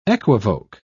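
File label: "equivoke", British English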